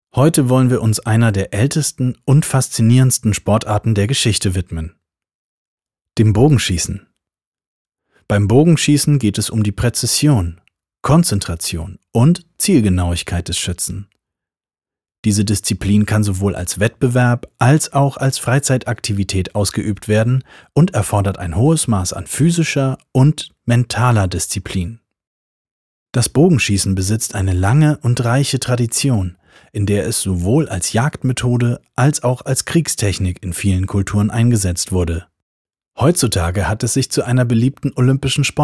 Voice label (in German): Offstimme